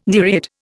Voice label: "nereid", Indian

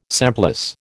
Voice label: "semplice", American

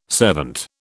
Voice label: "servant", British

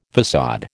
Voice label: "faÇade", American

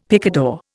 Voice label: "picador", Indian; Dictionary

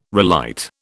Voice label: "relight", British

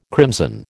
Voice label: "crimson", American

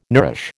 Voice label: "nourish", American

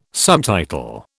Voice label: "subtitle", British

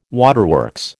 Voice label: "waterworks", American

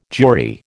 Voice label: "Jewry", American

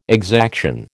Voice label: "exaction", American

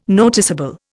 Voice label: "noticeable", Indian

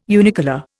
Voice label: "unicolour", Indian

